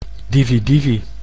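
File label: "dividivi", Dutch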